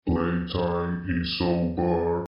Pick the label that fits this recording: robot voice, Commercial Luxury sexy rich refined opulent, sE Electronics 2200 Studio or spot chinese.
robot voice